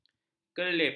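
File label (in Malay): "kerlip", Malay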